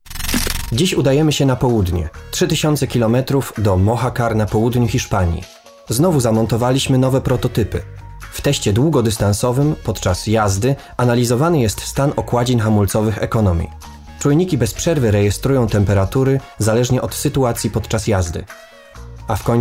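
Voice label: Polish, male, narration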